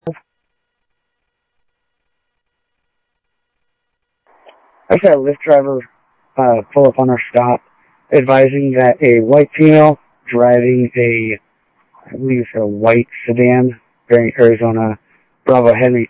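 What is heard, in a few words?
Sheriff Lake Patrol, scanner audio trunk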